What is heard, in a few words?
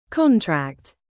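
"contract", adjective, British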